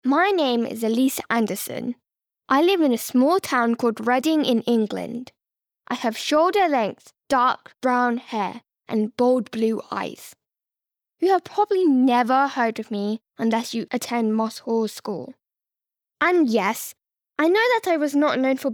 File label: Bookread